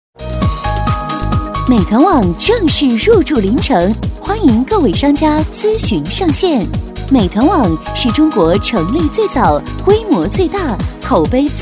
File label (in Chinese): 女 促销; 外卖; 美团外卖